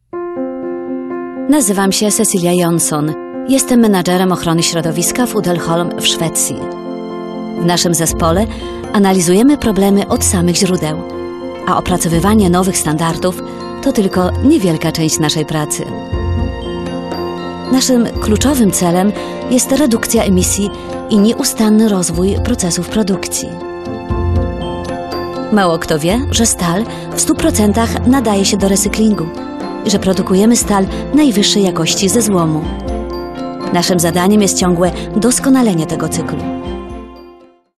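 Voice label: Polish, female, various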